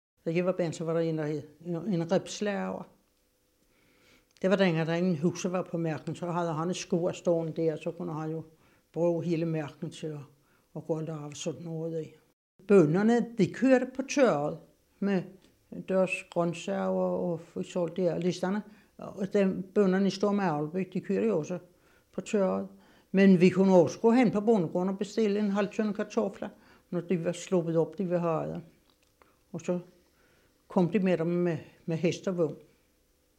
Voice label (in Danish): Dialekt fra Amager; Drag r Amager; Dialekter